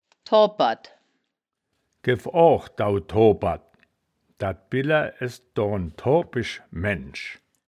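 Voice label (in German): "toobperd", Wittlicher Wörterbuch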